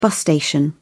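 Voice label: "bus station", British English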